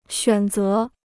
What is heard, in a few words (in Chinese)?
"选择", Chinese